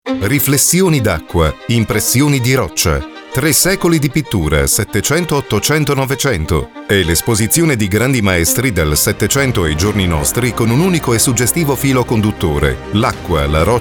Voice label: italian commercial